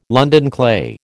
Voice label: "London clay", American